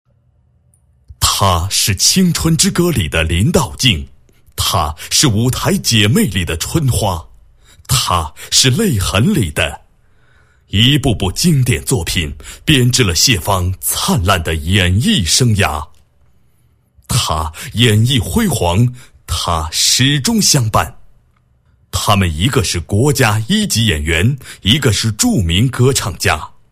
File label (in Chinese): 男40 人物专题, 国语男声配音